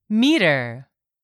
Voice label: "meter", English; 3000 words

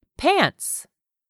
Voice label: "PANTS", English; 3000 words

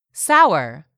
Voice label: "SOUR", english; 3000 words

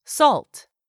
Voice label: "SALT", english; 3000 words

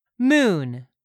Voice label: "moon", English; 3000 words

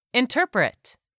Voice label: "interpret", English; 3000 words

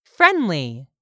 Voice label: "friendly", English; 3000 words

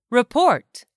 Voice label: "REPORT", english; 3000 words

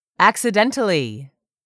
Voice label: "accidentally", English; 3000 words

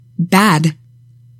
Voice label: "BAD", english; 3000 words

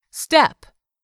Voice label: "step", English; 3000 words

